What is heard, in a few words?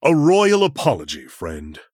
Male Human SORRY; Arthas